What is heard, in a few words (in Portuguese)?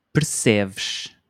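"perceves", português europeu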